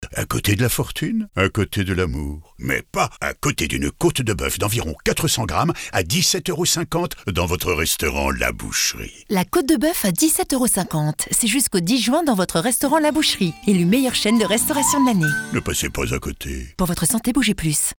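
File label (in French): Spot Radio signature, voix off féminine; La Boucherie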